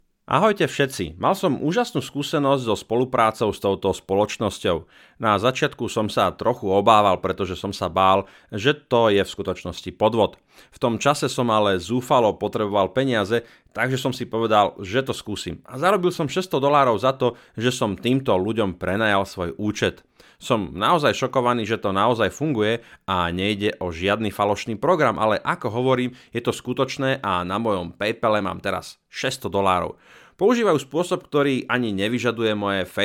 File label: customer testimonial; FB zarabanie